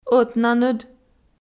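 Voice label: "ӧтнаныд", Komi, female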